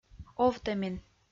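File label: "овтӧмин", Komi, female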